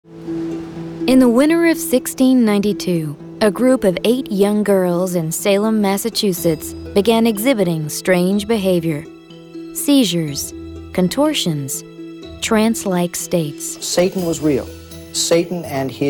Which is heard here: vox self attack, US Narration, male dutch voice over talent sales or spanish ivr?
US Narration